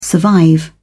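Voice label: "survive", British English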